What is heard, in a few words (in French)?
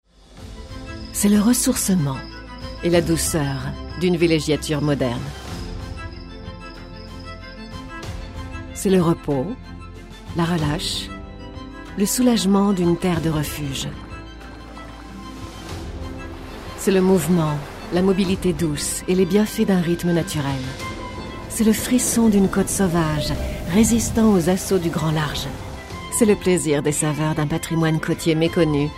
voix femme